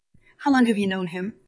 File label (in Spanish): Voice Acting es